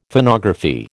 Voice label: "Phonography", American